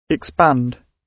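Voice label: "expand", British English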